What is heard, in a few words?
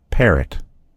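"parrot", American English; gcompris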